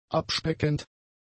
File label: "abspeckend", German; adjectives